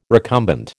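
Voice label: "recumbent", American English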